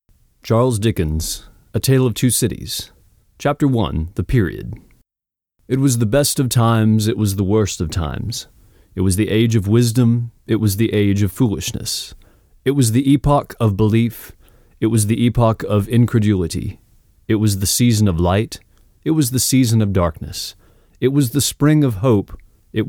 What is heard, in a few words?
Audiobook Narration Voiceover Dry